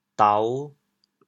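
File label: "dao5", Teochew, chaoyang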